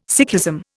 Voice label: "Sikhism", Indian

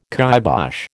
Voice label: "kibosh", American

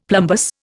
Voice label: "plumbous", Indian; Dictionary

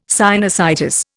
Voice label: "sinusitis", Indian

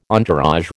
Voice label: "entourage", American

